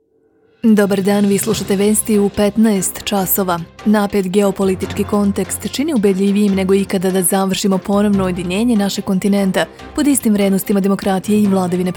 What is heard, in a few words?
News Radio Serbian